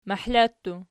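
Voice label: "mahla" plural, Archi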